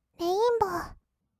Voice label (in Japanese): "レインボー", kawaii voice; ASMR; 色々なワンショット; 1200 kawaii voice!!